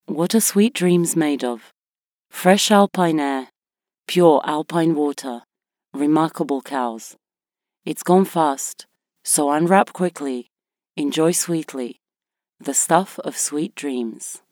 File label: commercial soft, english GB